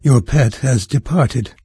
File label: pet left, narrator; Fate Undiscovered Realms